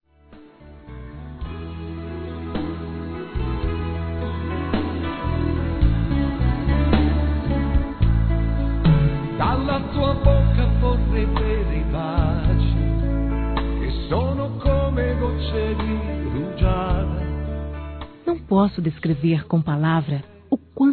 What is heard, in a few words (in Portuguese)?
Aniversário Romântico Feminino; Casal; Telemensagens; Românticas